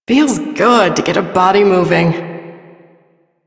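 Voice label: Female Brisk; Warframe; Duviri; Duviri Ambient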